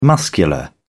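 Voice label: "muscular", British English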